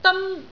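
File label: "deon1", Cantonese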